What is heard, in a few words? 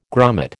"grummet", American